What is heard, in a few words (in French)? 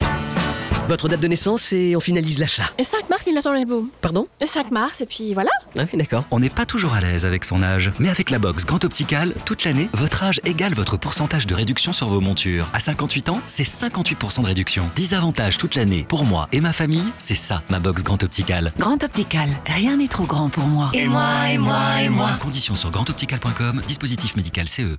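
complice souriant, Pubs; voix off; Grand Optical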